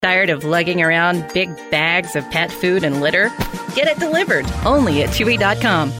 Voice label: sassy engaging natural confident; chewey dot com